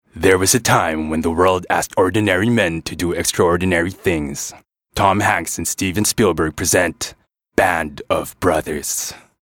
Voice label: English, Husky, Trailer